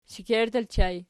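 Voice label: shekerttu ergative plural, Archi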